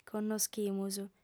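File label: "connoschimus", female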